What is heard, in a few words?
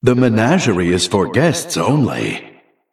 Male Arcane Golem Play